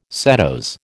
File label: "setose", American